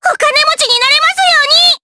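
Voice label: voice Skill, voices heroes, Japanese; kingsraid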